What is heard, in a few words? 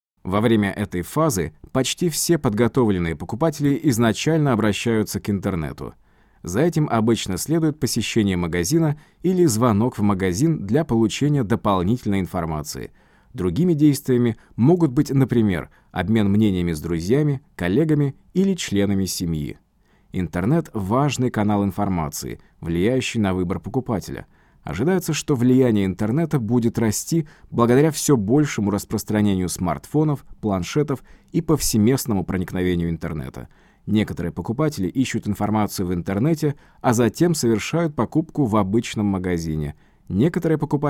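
Russian, narration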